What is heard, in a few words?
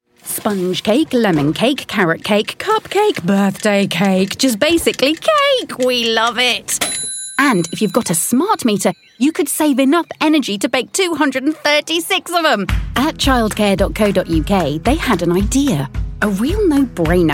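commercial, Experienced British Voiceover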